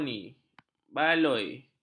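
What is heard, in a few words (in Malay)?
"baloi", Malay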